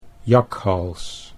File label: "jakhals", Dutch